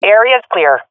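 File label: sees area clear, swat female; vo; Counter Strike Model Pack; CS:GO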